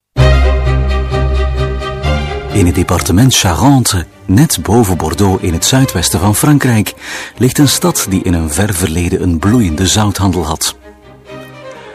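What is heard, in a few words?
flemish male narration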